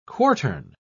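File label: "quartern", British English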